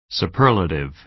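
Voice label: "superlative", American English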